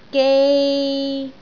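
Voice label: "gei3", Cantonese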